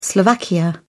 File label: "Slovakia", spoken; europe